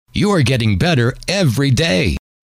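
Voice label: you Are Getting Better Every Day, male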